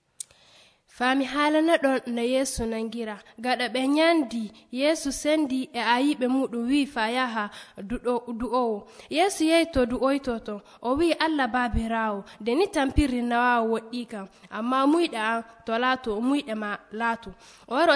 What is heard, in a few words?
Western Niger Fulfulde; Condemned; Arrestation de Jesus